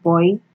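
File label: "boy", Nigerian Pidgin, female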